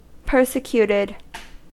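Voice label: "persecuted", US English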